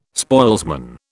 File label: "spoilsman", British